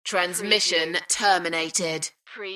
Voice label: UK female, Transmission terminated; beanstalk audio